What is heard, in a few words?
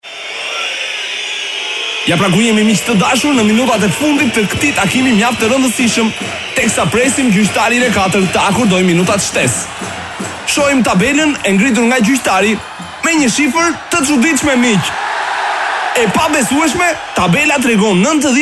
Promo; Zgjohu Pozitiv